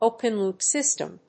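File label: "open loop system", English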